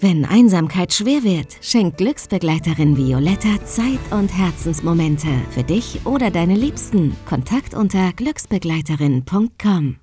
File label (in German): funkspot; gluecksbegleiterin